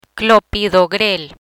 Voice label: "Clopidogrel", Spanish; coagulation modifiers

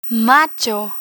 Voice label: "macho", Spanish; learn spanish

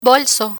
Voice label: "bolso", Spanish

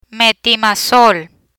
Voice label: "Metimazol", Spanish

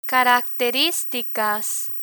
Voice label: "caracteristicas", Spanish; hotel features; learn spanish

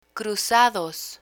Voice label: "cruzados", Spanish

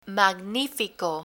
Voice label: "magnifico", Spanish

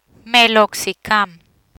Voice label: "Meloxicam", Spanish

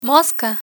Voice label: "mosca", Spanish; learn spanish

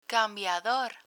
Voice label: "cambiador", Spanish; baby care vocabulary

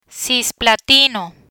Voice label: "Cisplatino", Spanish; cancer medicines

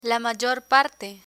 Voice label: "la mayor parte", Spanish; learn spanish

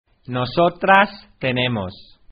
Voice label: "nosotras tenemos", Spanish

